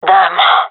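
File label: sorry, gendarmerie female; vo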